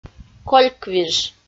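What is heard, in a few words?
"кольквиж" Komi, female; audio dict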